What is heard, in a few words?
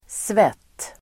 "svett", Swedish